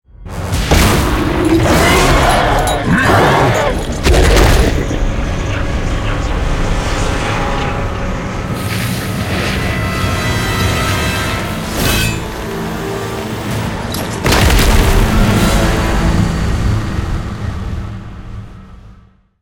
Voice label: cinematic voice; lineage2

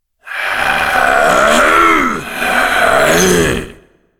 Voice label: cinematic voice, ru; lineage2

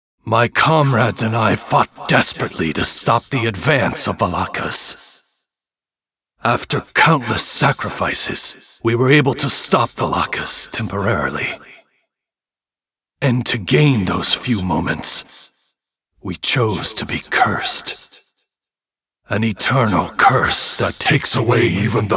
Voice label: dialog; lineage2

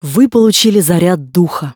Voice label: tutorial voice ru; lineage2

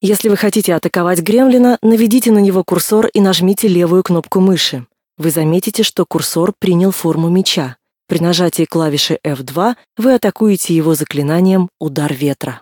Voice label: tutorial voice, ru; lineage2

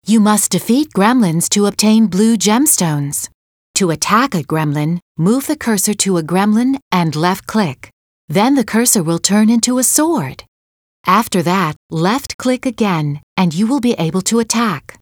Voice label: tutorial voice; lineage2

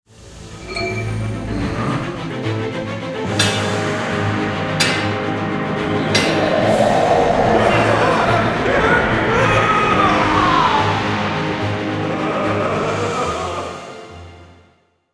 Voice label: cinematic voice; lineage2

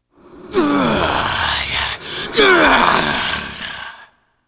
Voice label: cinematic voice; lineage2

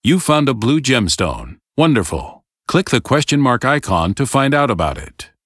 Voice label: tutorial voice; lineage2

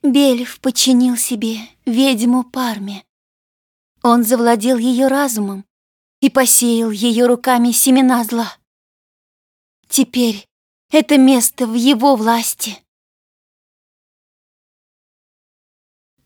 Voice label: cinematic voice, ru; lineage2

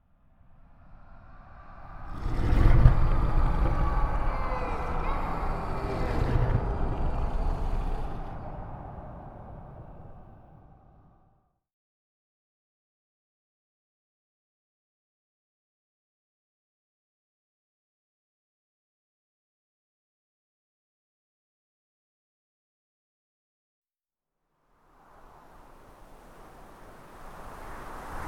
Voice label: cinematic voice, es; lineage2

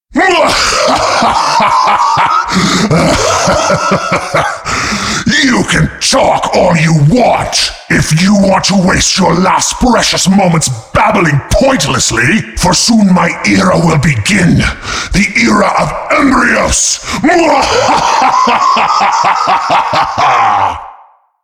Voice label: cinematic voice; lineage2

